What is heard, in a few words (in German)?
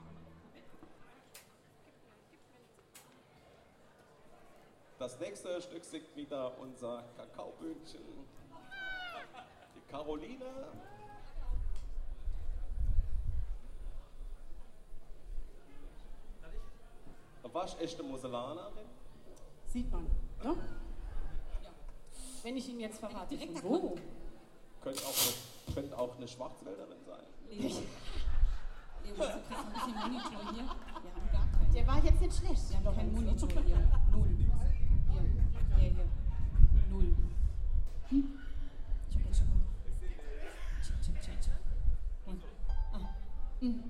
Ansage, Konzert; Boemundhof, Saarburg